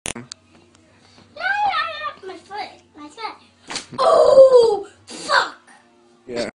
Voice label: ooh fuck little kid